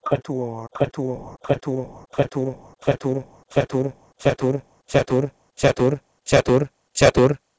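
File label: Proto-Indo-European kwetwor to Sanskrit chatur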